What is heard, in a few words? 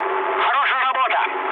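player killed enemy, mood low, Russian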